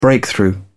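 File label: "breakthrough", British English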